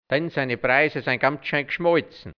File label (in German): den seini Preise sei(n) gaunz schei(n) gschmolzn, Mundart, Burgenland, hianzisch